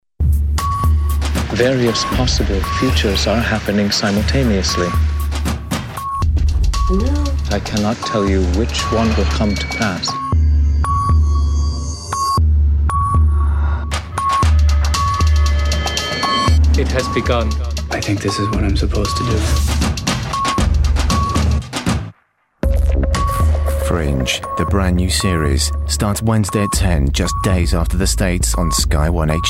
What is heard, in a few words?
Promo; Fringe